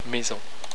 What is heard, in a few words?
"maison", french